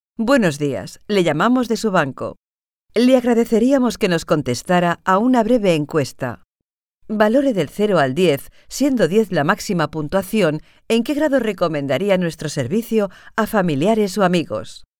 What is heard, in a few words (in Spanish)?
SP EU IVR; IVR/Phone systems; Female; Spanish (European)